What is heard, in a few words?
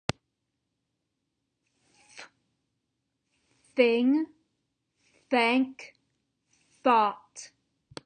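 th unvoiced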